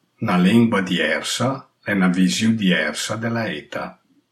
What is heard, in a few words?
lombard